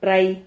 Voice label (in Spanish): "rrai", silabas